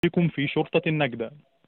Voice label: Call recording Emergency number